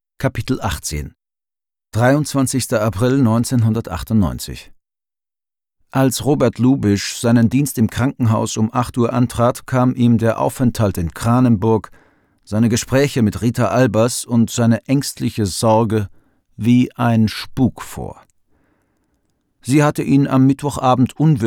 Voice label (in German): Hörbuch